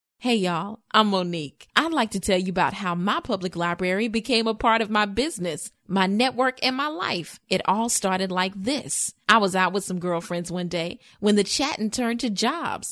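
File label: American English, female, voice over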